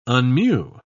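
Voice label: "unmew", British English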